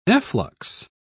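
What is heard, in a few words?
"efflux", British English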